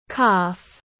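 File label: "Calf", English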